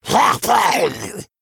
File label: Male Murloc